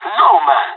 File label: negative, pirate; Counter Strike Model Pack; CS:GO